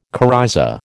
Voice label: "coryza", American